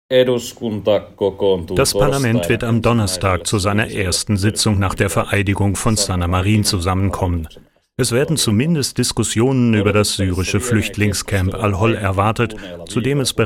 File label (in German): Voice Over Finnisch